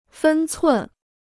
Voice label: "分寸", Chinese

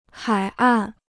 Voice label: "海岸", Chinese

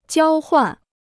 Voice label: "交换", Chinese

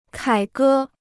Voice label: "凯歌", Chinese

